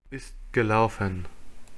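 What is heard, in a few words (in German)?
"ist gelaufen", German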